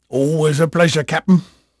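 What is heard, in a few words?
English male, voice; Pirates Of The Caribbean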